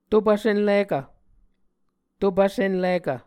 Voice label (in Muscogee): "topv senlikv", Muscogee